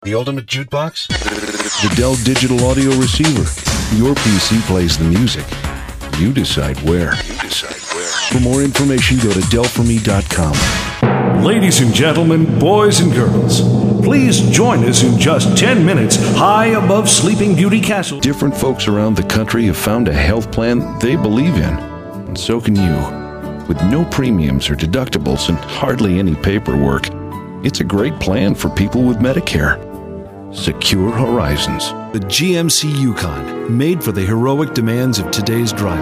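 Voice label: commercial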